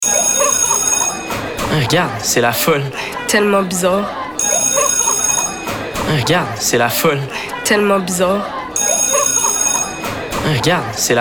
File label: radio; bell; fr